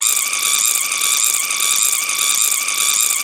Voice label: mad complaint; horror